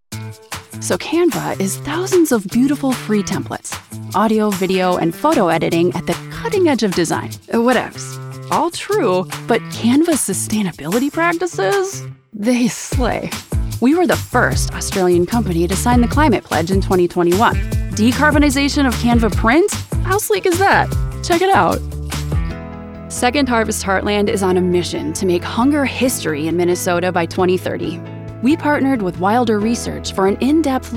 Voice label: Corporate